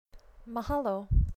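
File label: "mahalo", Hawaiian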